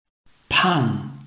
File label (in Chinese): pan4, Chinese